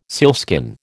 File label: "sealskin", American